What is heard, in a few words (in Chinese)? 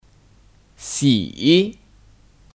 "洗", Xǐ; (Kata kerja): Mencuci